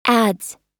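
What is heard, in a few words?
"adds", US English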